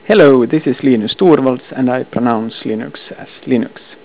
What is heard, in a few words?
english; linux